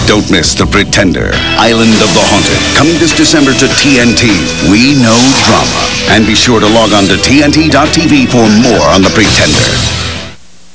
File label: narrator closing; tnt